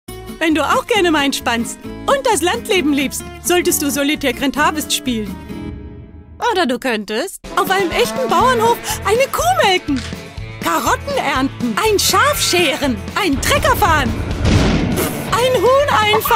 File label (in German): Imitation, IMITATION PAROD; Verona Pooth; TV-Spot; Solitaire Grand Harvest